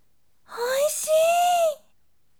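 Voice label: "oishii", bright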